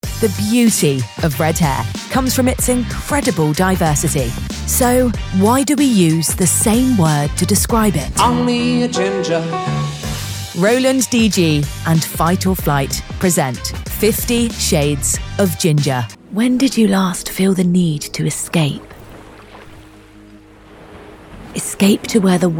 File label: Commercial